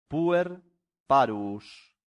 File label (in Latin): "puer parvus", Latin; santillana